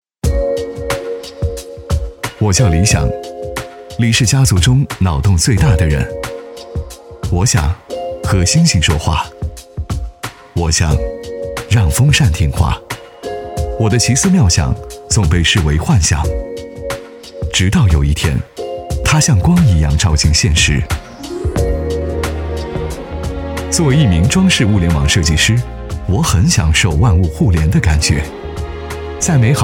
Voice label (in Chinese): 男 专题 角色 讲述 品质, 磁性; 奇信